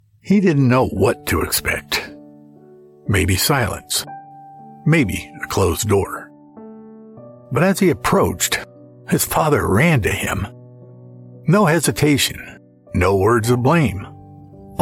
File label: Inspirational Faith Based Voiceover